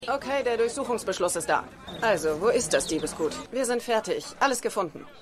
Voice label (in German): Polizistin; Stimmproben